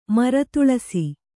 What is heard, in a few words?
"ಮರತುಳಸಿ", Kannada